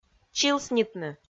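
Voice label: "чилснитны", Komi-Zyrian, female; kpv audio dict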